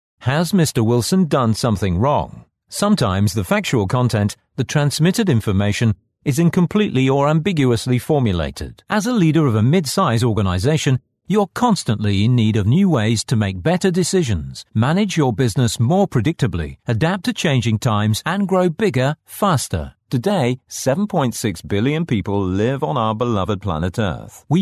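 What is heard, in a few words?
English, British, male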